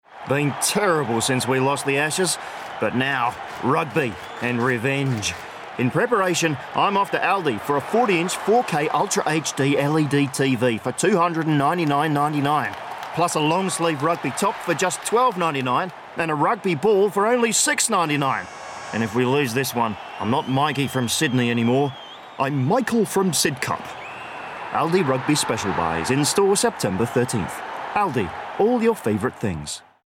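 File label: Australian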